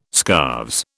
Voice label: "scarves", British